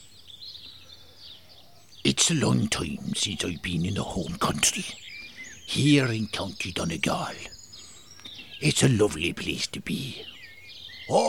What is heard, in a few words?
Irish